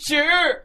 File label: "sure", sarcastic